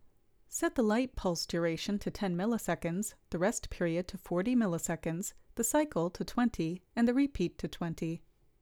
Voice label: Voiceover